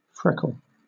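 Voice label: "freckle", British English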